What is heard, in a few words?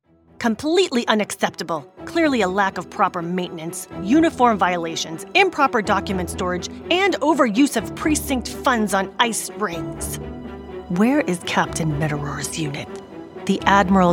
Video Game Character